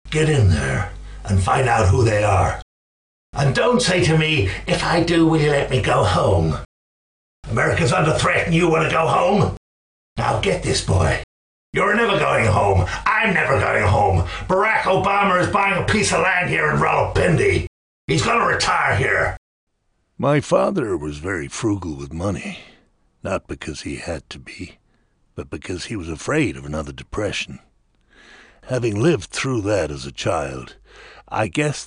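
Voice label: British, natural, trustworthy Male Voice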